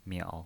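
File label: "miao", pinyin voice, Mandarin Chinese, third tone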